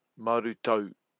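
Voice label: "marutau", Māori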